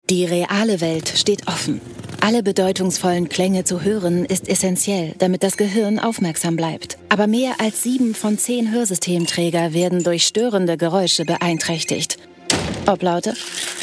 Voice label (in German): imagefilm; oticon